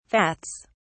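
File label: "vats", British English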